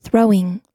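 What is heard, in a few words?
"throwing", US English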